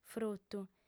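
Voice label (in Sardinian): "frutu", female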